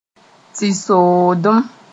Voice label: "tɩ-soodem", Moore

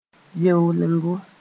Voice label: "beolengo", Mooré

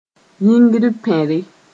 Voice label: "yĩgr-pẽre", Mooré

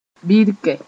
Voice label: "birkɛ", Mooré

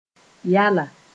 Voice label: "yala", Moore

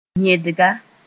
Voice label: "yẽdga", Mooré; anus

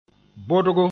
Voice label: "bodgo", moore; sack mad of jute

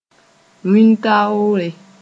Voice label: "wĩn-taoore", moore

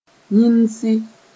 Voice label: "yĩnsi", Mooré

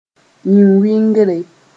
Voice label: "yĩn-wɩngre", Mooré

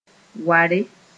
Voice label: "ware", Mooré; imposing thing

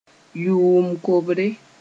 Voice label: "yʋʋm kobre", Moore

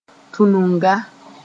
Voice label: "tũnunga", moore

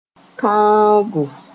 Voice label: "tõogo", Mooré

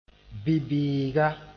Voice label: "bi-bɩɩga", moore

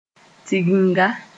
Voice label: "tiginga", Moore; gathering

